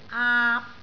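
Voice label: "aap3", Cantonese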